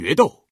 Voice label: duel, male; FreeKill; standard cards